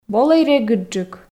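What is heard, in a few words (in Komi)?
"волӧй регыдджык", Komi, female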